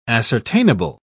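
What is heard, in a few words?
"ascertainable", British English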